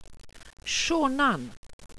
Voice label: "sionan", welsh pronouciations; Wales/welsh names